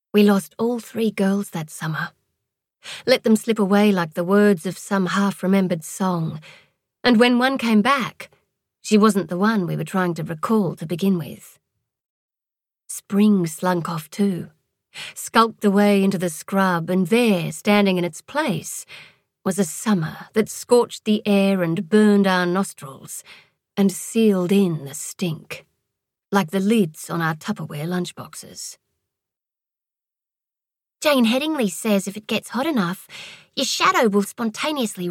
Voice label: Australian Accent Sample; Van Apfel Girls

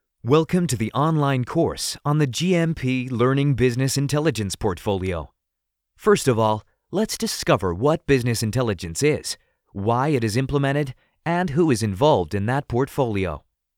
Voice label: E-Learning US

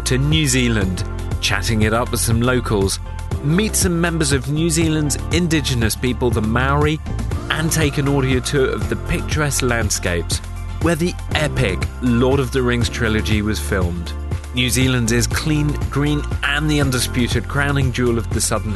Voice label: NZ Podcast

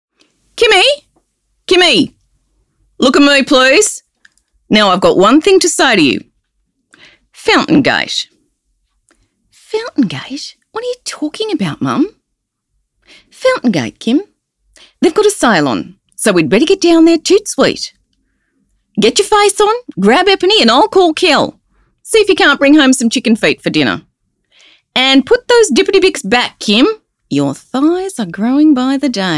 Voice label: Promo

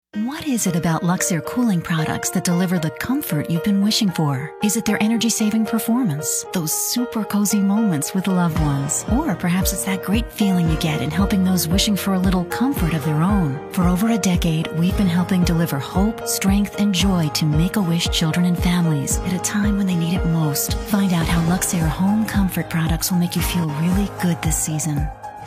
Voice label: TV Spot; Luxaire

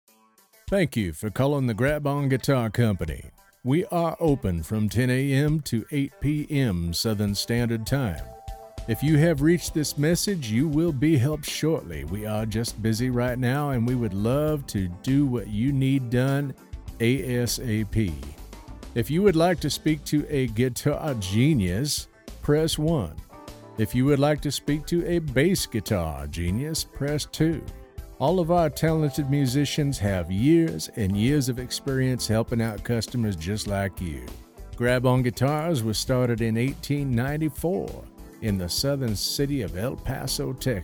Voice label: On hold IVR

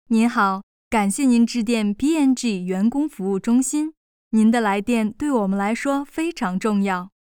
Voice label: chinese voiceover

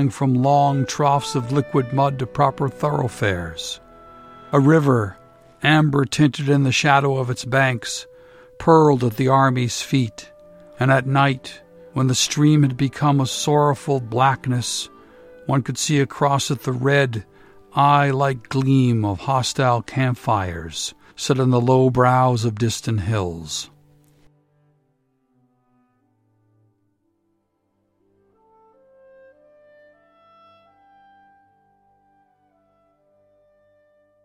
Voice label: Narration with music

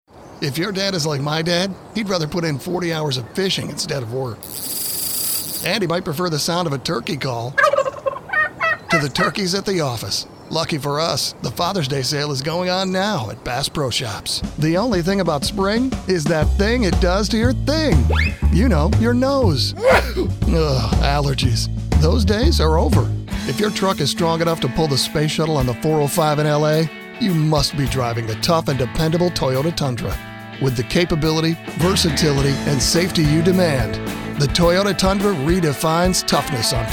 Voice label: Commercial

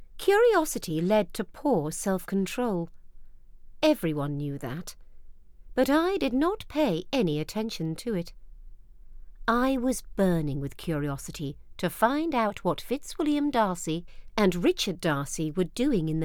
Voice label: Audiobook Regency

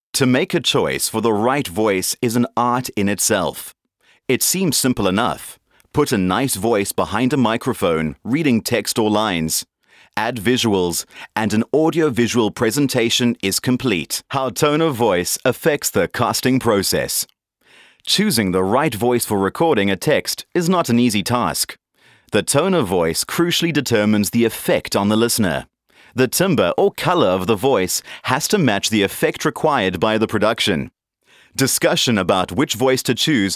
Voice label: NATURAL

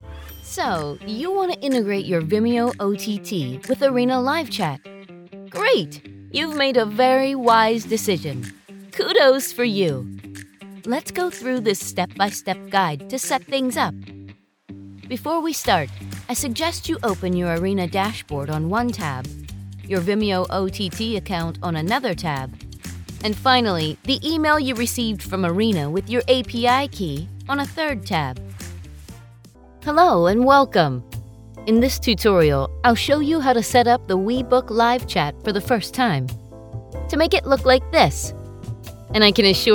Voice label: Video Narration

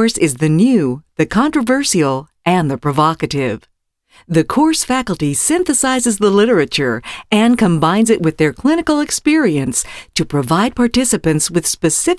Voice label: MEDICAL ELEARNING

